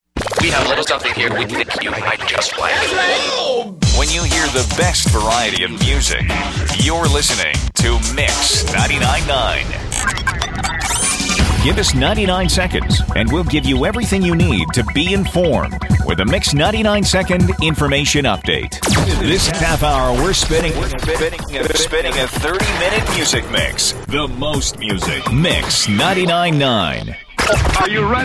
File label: Imaging Demo